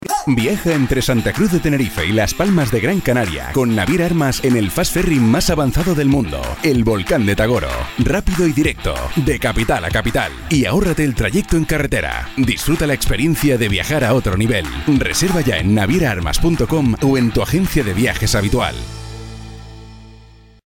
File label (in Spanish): publicidad